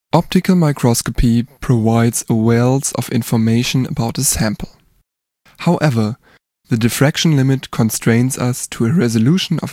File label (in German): sprache 96 kHz